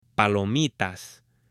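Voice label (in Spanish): "palomitas" Spanish, female; ALIMENTOS; AppLenguaje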